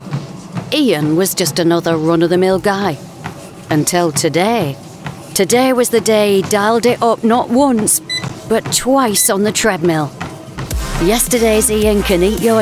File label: Radio commercial; Total Fitness